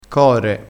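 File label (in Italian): "core", vocabolario dialettale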